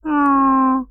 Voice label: Sound Sad No